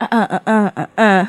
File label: "uhuh uhuh uh uh", Voice; ooh ahh; uh um